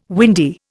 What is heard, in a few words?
"windy", Indian